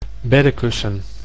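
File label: "beddekussen", Dutch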